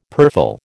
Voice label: "purfle", American